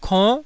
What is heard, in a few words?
"konn9", taiwanese syllables